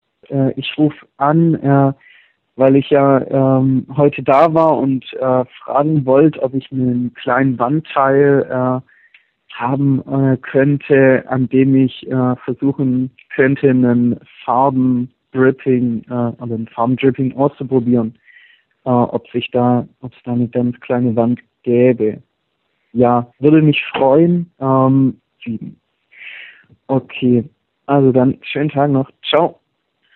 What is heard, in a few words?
Voicemail